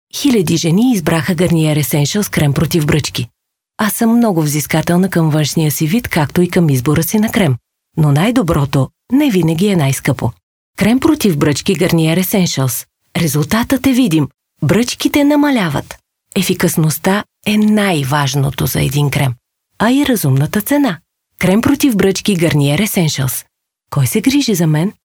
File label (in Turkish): Bulgarca Kadin, seslendirme